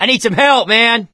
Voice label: call for rescue, survivor voice

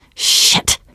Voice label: swear, teengirl; source engine; left 4 dead 2; survivor

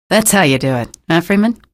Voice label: kill, female; video games; npc; source engine; episodic; episode 1

